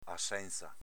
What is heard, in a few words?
"assenza", Veneto